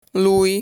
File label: "Lui", Italian